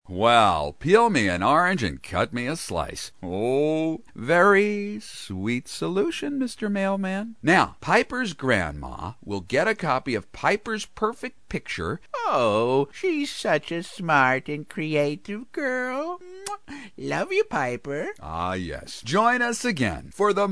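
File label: NARRATOR Children's Show; animation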